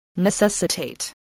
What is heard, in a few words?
"necessitate", voice